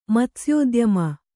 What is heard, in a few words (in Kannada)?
"ಮತ್ಸ್ಯೋದ್ಯಮ", Kannada; matsyōdyama